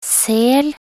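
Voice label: "sel", Norwegian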